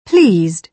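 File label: "pleased", British English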